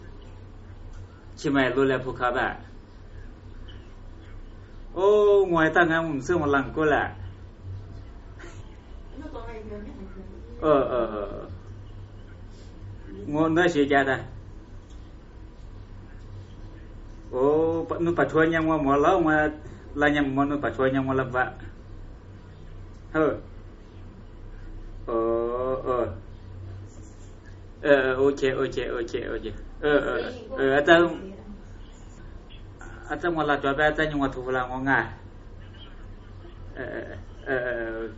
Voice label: lisu talk on fone